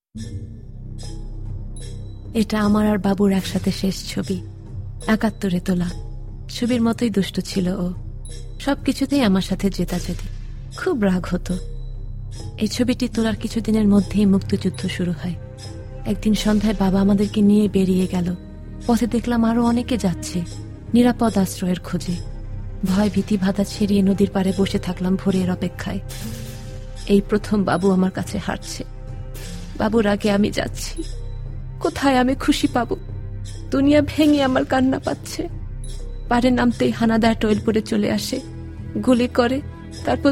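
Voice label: Bengali Documentary, voice demos; GoLocalise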